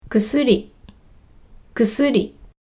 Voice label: "kusuri", kansaiben